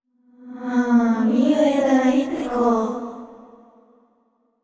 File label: VO thinking; yamiko